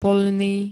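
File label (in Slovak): "poľný", Slovak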